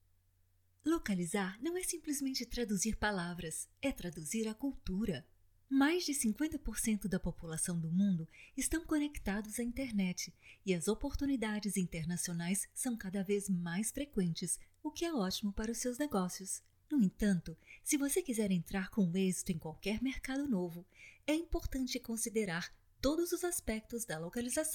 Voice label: portuguese brazil female